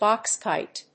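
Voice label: "box kite", English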